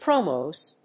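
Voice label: "Promos", English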